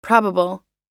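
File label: "probable", US English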